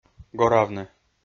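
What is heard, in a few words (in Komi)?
"горавны", Komi-Zyrian, male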